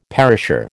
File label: "perisher", American